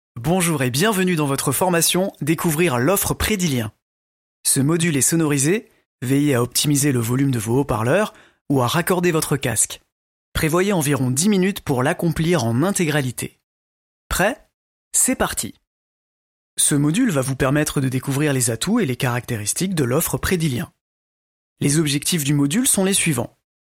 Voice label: voix off homme fr; Studio Com'un Son; SPOTS RADIOS

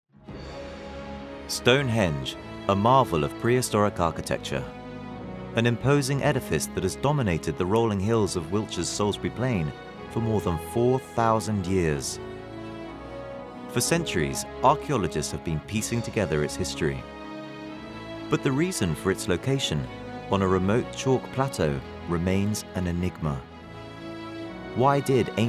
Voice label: voix off homme uk; Studio Com'un Son; SPOTS RADIOS

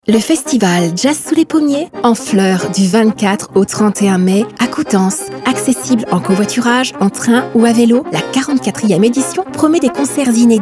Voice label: Spot radio; Jazz sous les pommiers

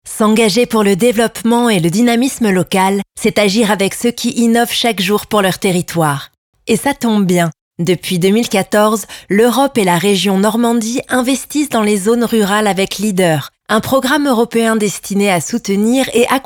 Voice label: voix off femme, Français; Studio Com'un Son; SPOTS RADIOS

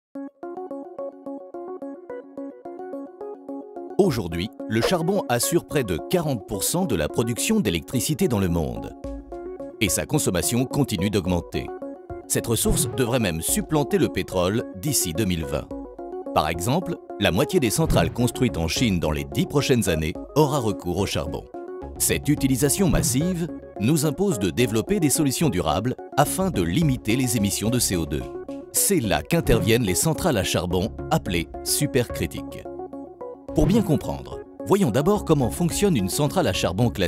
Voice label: voix off homme fr; Studio Com'un Son; SPOTS RADIOS

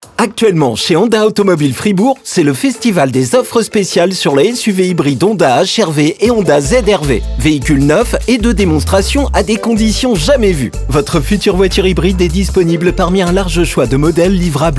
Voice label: Spot radio AUTOMOBILE; HONDA; HRV ZRV

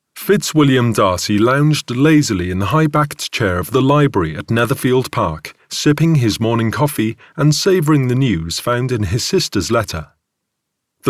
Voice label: voix off homme uk; Studio Com'un Son; SPOTS RADIOS

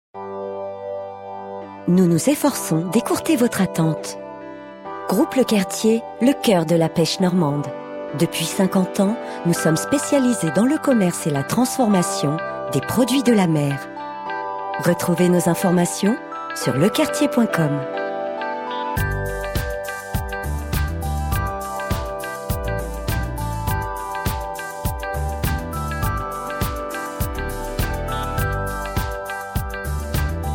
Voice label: voix off message acceuil telephonique femme; Studio Com'un Son; SPOTS RADIOS

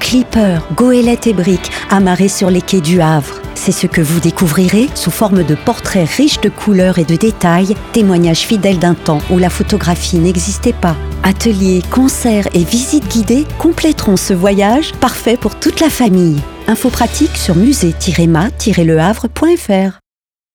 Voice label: Spot radio exposition

